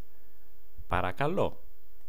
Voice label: "parakalo", greek